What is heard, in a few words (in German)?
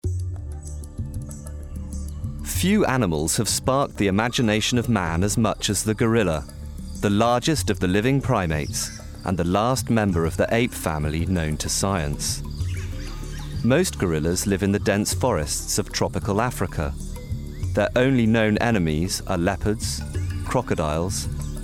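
British Dokumentation, englisch; sprecher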